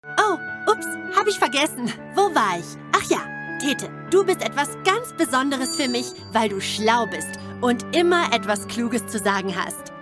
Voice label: german animation